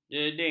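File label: "jeding", Malay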